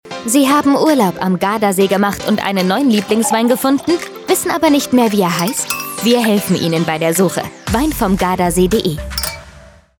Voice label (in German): Funkspot; Wein vom Gardasee